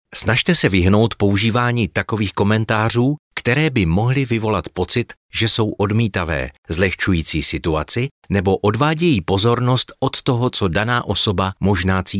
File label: CZ e-learning